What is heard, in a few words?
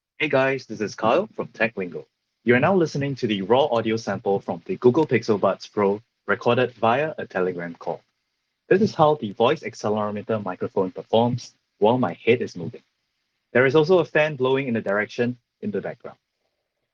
Google Pixel Buds Pro Audio Sample